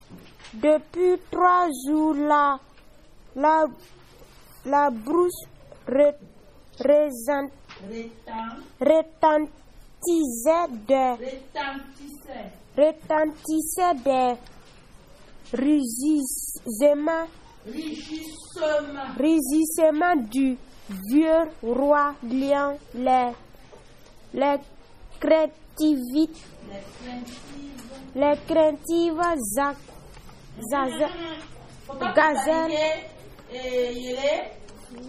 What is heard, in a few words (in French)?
lecture discours chant; voyage au burkina janvier 2016